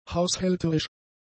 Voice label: "haushälterisch", German; adjectives

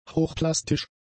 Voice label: "hochplastisch", German; adjectives

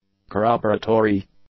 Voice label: "corroboratory", English; adjectives

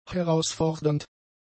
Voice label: "herausfordernd", German; adjectives

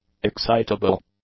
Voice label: "excitable", English; adjectives

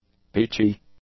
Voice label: "peachy", English; adjectives

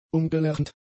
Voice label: "umgelernt", German; adjectives

